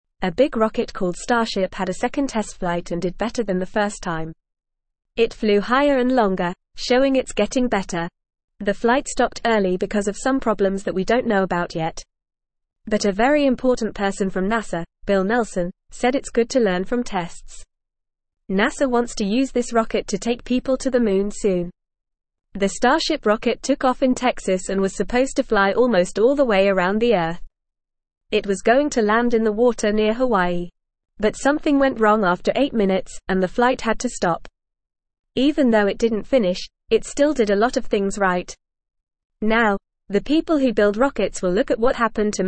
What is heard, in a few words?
English Newsroom Lower Intermediate FAST Reading; Starship Rocket Flies High Still Learning